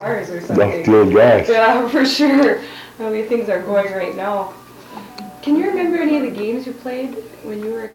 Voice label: Personal history with male, Chisholm Minnesota, american english dialect recordings